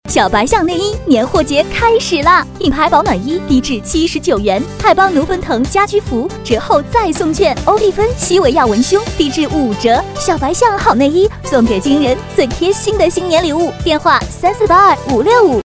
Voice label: 促销 小白象内衣年货 女 轻快

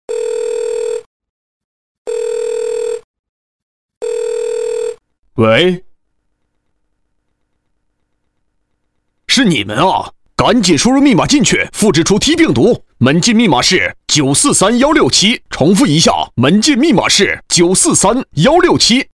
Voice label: 角色 密室逃脱角色男 磁性急促

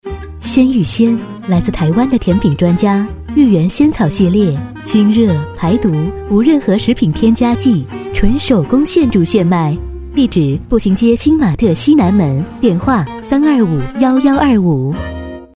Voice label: 广告 甜美; 鲜芋仙

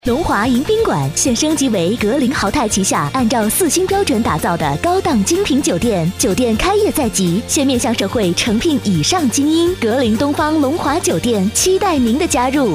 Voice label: 广告 酒店女 甜美

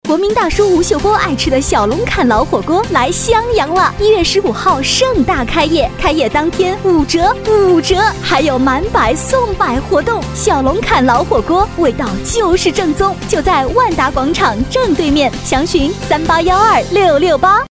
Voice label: 广告 老火锅女 欢快; 小龙坎老火锅女50

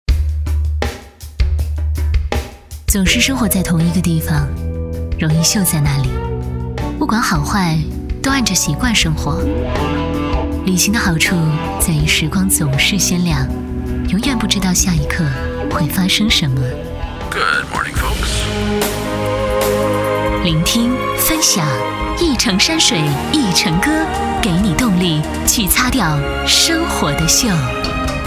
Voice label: 品质广告 女 自然讲述

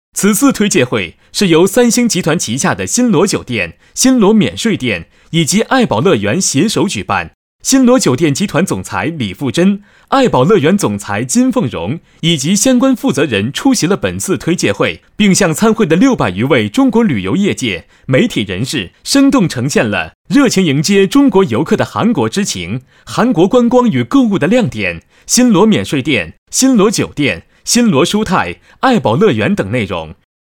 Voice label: 【新闻】新闻类型男

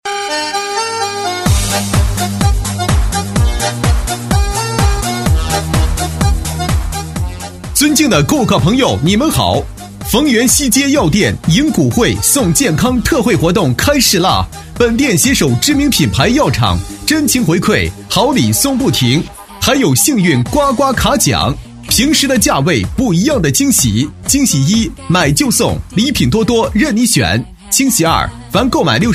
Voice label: 促销 男 激情浑厚; 西街药店

